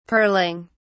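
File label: "pearling", British English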